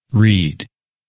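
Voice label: "Reed", English; last names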